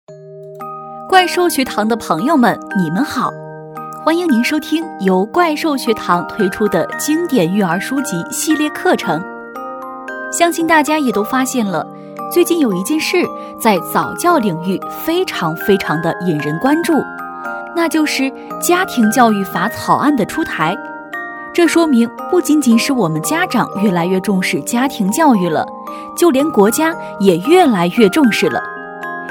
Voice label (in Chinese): 女-育儿书籍-温馨柔和; 怪兽学堂